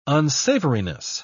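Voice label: "unsavoriness", English, British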